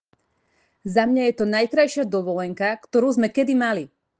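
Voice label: "Za mňa je to najkrajšia dovolenka, ktorú sme kedy mali", Slovak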